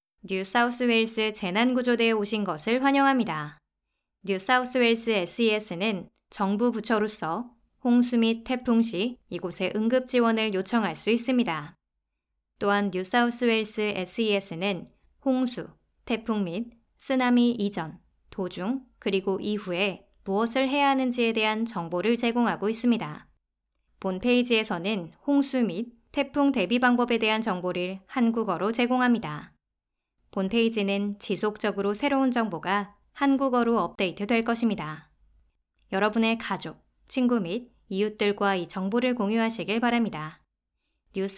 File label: korean